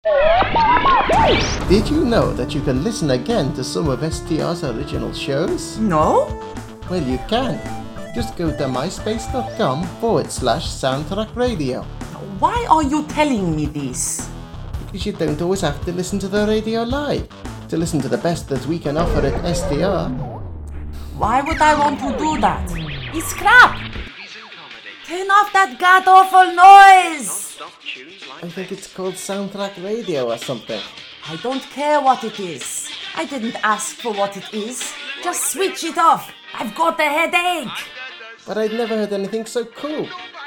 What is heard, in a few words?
commercial; not in this house